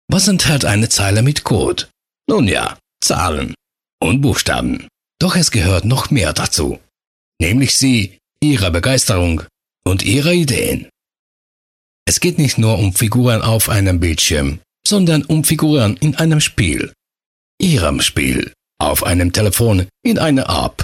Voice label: german

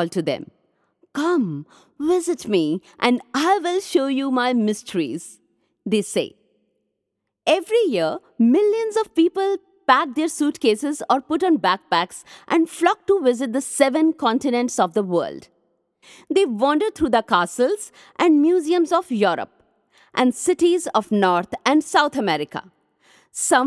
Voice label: Travel English

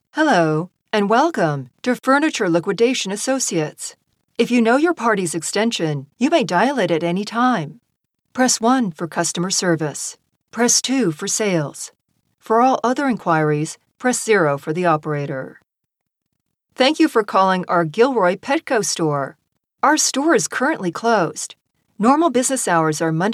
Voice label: IVR messaging

